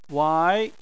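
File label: "wai", Chinese, second tone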